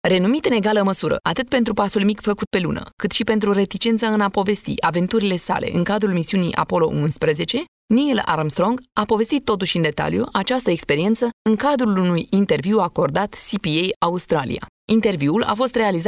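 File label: Romanian, female, narration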